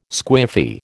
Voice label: "squiffy", American